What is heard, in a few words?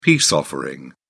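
"peace offering", British English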